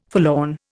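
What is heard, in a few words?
"forlorn", Indian English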